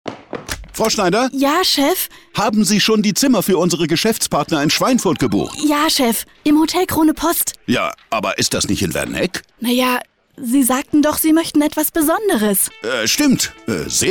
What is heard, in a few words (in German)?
Spot; PRIMATON